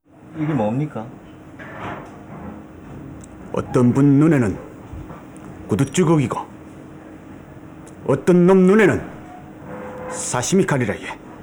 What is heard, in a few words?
Narration; 조재현